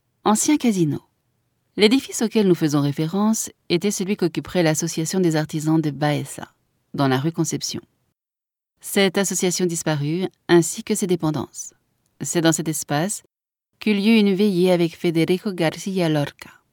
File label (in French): audioguia, frances; audioguia baeza